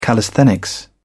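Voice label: "callisthenics", British English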